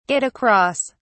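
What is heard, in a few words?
"get across", British English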